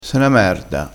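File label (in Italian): "merda", dialettale crespinese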